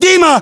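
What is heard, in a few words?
"dima", battlechatter, Russian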